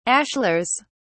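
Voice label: "ashlars", English, British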